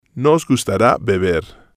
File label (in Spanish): "nos Gustara Beber", spanish